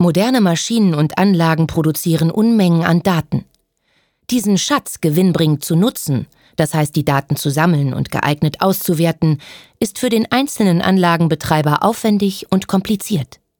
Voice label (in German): Werbung